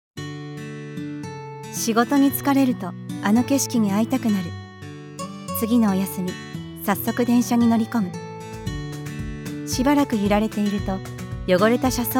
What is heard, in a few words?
japanese others; voice actor; E-learning